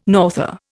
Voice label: "norther", Indian